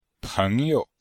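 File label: "peng2you5", Chinese; Cours de Chinois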